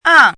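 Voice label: "ang", Mandarin Chinese, fourth tone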